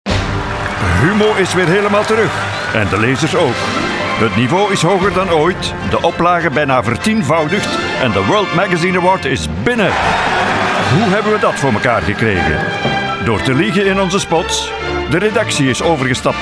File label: radio; Humo Mirakel